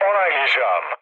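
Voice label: Turkish player killed enemy, mood low, speech; War Thunder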